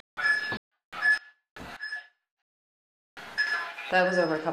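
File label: lets go coming in 2 different voices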